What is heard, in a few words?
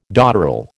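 "dotterel", American English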